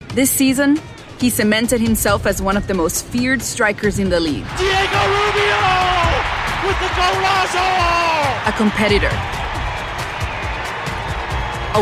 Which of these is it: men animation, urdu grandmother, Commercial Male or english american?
english american